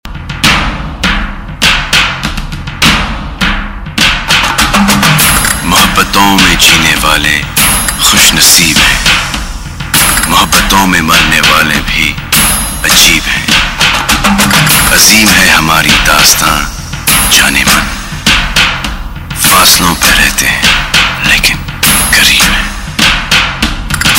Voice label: dialogue ringtone; salman khan